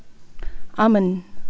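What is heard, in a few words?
"almond", Bruneian, female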